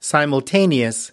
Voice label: "simultaneous", us

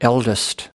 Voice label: "eldest", us

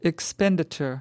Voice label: "expenditure", us

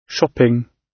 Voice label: "shopping", gb

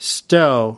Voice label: "stow", us

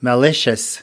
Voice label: "malicious", us

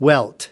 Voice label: "welt", us

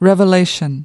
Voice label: "revelation", us

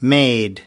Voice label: "made", us

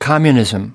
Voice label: "communism", us

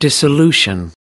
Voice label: dissolution, us

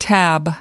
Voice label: "tab", us

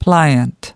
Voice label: "pliant pliable", us

